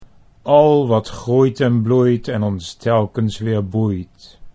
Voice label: al wat groeit en bloeit en, Dutch

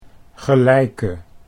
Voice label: "gelijke", Dutch

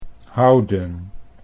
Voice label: "houden", Dutch

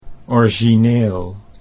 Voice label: "origineel", Dutch

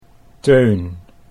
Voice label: "Teun", Dutch

